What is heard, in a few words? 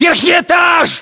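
location top floor generic, battlechatter, Russian; localized english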